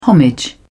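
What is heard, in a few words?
"homage", British English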